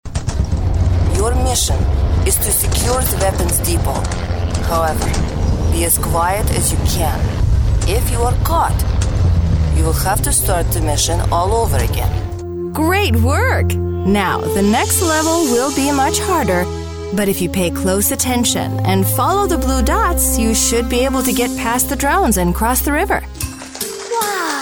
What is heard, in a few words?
Character